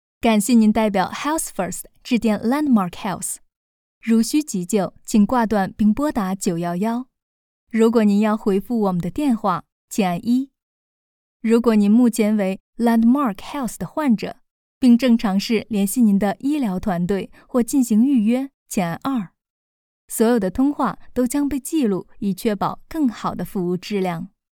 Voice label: Corporate Narrations